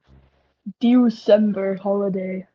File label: voice message